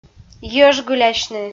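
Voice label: "йӧжгылясьны" Komi, female; audio dict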